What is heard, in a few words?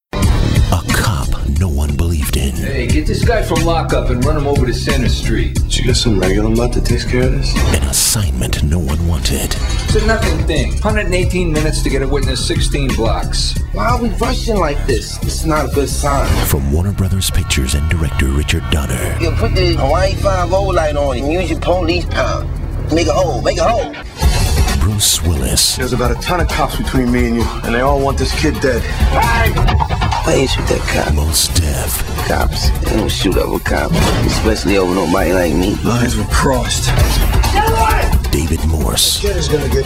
Male